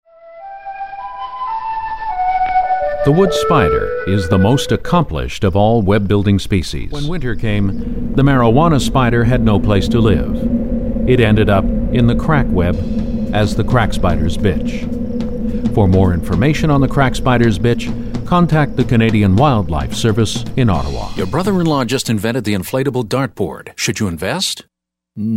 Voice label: commercial